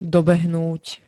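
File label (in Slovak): "dobehnúť", Slovak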